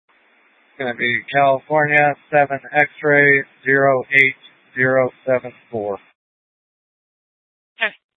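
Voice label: Police Dispatch, Scanner audio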